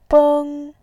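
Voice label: "beng", Mandarin Chinese, tone 1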